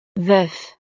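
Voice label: "veuf", French; familia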